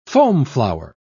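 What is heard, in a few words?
"foamflower", British English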